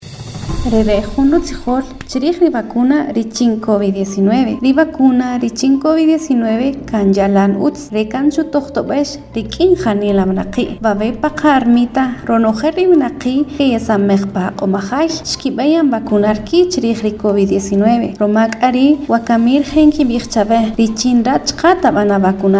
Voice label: SPOT KAQCHIKEL